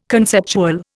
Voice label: "conceptual", Indian